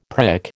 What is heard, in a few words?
"prick", American